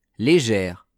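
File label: "legere", French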